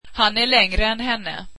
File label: swedish he is taller than her